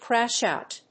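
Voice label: "Crashout", English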